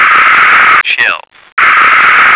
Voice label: "shelf", American

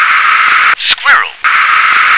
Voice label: "squirrel", English

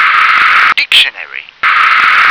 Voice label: "dictionary", English

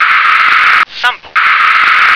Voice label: "sample", English

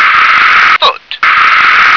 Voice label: "foot", English

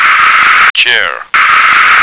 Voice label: "chair", American

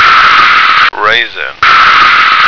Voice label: "raisin", American

